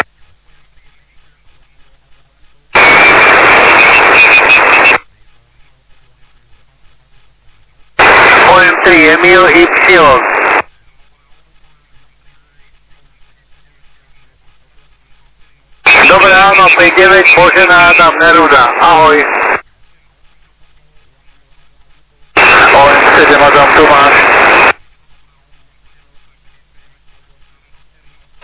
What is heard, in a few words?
LSB, amaterske radio; SSB-LIGA; ssb liga s mp3 nahravkami spojeni maj 2025